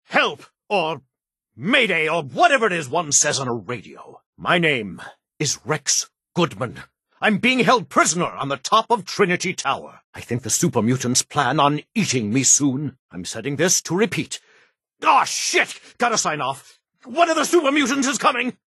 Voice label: Radio broadcast; fallout; Trinity Tower